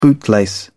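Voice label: "bootlace", British English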